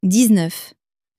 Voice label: "dix neuf", French

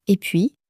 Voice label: "et puis", French

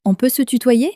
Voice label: "on peut se tutoyer", French

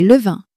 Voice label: "vin", French; flashcards

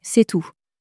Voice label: "c est tout", French

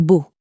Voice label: "beau", french

